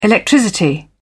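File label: "electricity", British English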